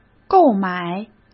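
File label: "购买", Mandarin Chinese; hsk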